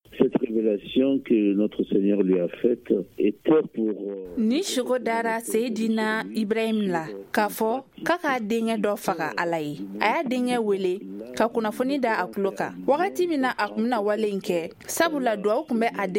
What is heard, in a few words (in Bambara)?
magazine bamanankan